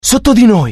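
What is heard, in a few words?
curious, Italian; mohaa; dialogue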